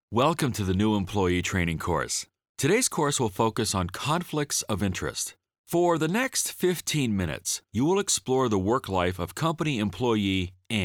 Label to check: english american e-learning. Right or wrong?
Right